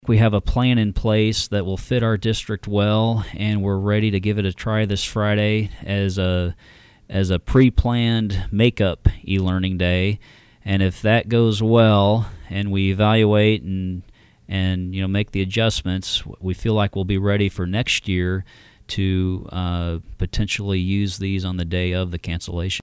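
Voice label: NZ E LEARNING, New Zealand English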